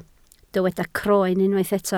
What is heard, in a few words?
"croen", Welsh